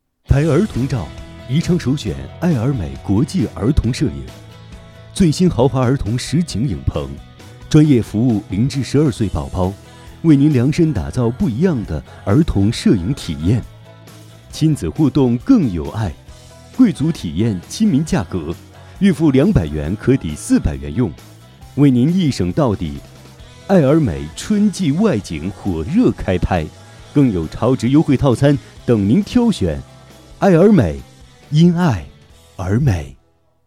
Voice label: 促销广告; 爱尔美

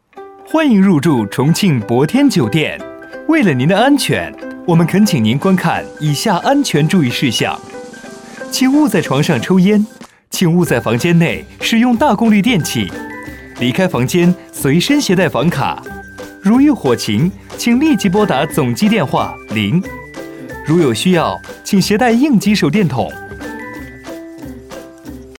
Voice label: 安全提醒 年轻

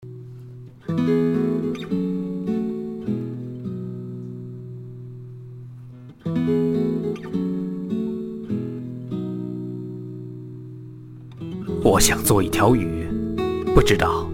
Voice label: 宣传片; 中国原创视频房间宣传片

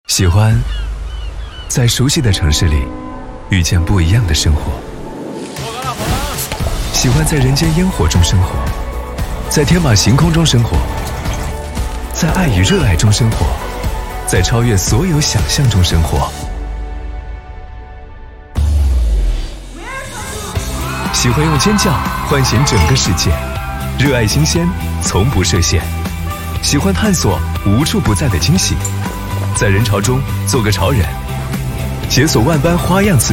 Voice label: 活泼 年轻; 建行生活

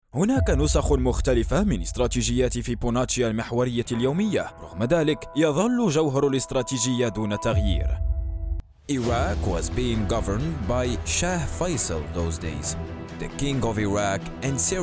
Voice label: 广告宣传

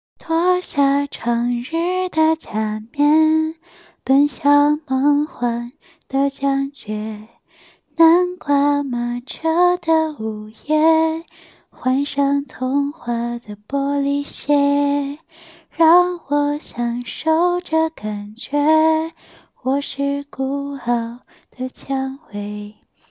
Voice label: 干音

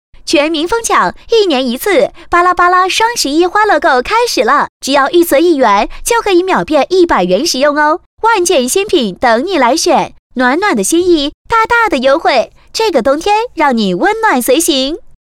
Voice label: 活力声 广告; 巴拉巴拉

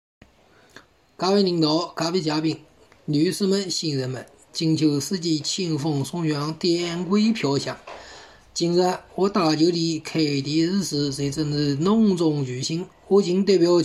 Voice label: 地方方言致辞

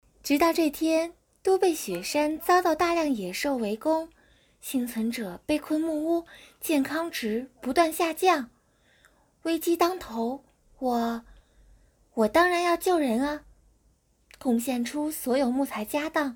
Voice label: 游戏 试音 少女音; 幸存者

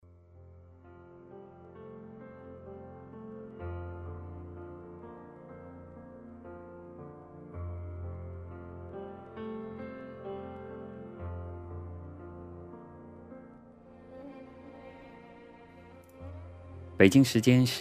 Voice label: 星光电台音乐朗诵

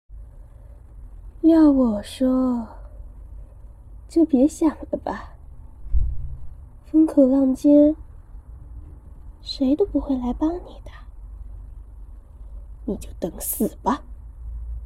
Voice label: 邪魅坏

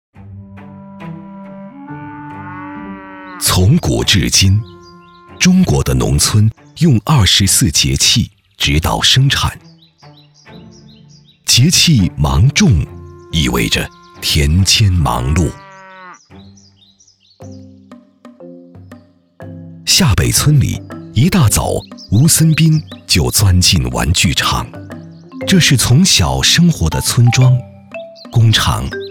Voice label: 样音 典型 人物片; 建党百年; 山乡巨变

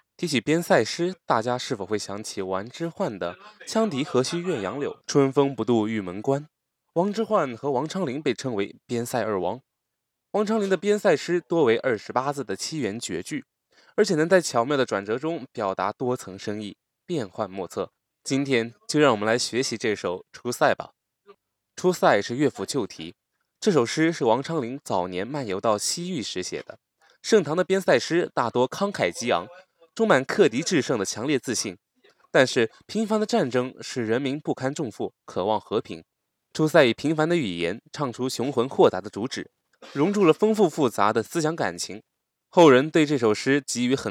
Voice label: 古诗试音

